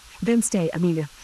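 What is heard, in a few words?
voice acting, es, female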